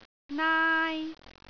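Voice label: "naai1", Cantonese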